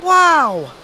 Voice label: "wow", Voice; ooh ahh